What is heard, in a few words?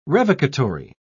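"revocatory", British English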